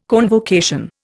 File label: "convocation", Indian